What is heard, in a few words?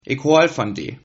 "I love you", Sylt Frisian